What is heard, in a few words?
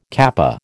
"kappa", American